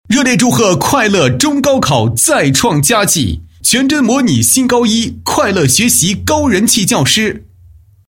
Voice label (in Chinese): 男 祝贺词; 热烈祝贺快乐学习中高考再创佳绩